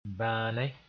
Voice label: "bãane", moore; quietly

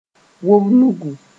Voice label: "wob-nugu", moore

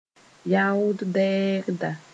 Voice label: "yaood dɛɛgda", moore

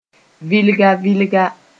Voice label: "vɩlga-vɩlga", moore

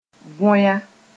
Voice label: "võya", moore

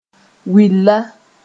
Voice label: "willa", Moore

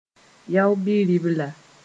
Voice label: "yao-bi-ribla", moore

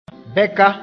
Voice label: "bɛka", moore

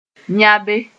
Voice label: "yãbe", moore; weep

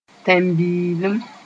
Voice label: "tẽn-n-biilim", Moore; citizenship

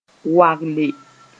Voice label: "wagle", Mooré; crunch

